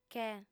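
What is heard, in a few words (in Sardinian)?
"che", female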